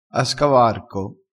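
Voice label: "a scavarco", Italian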